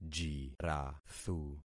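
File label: "jirathu", concatenated syllables; Affixing experiment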